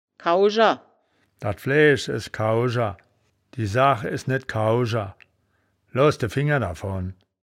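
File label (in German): "kaujer", wittlicher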